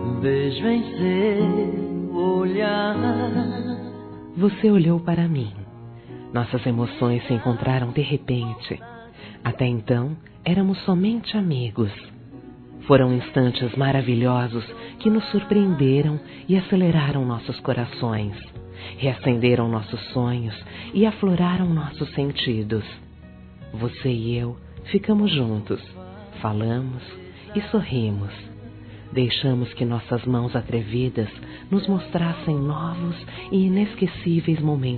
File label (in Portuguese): AMIZADE QUE VIROU AMOR F, Telemensagens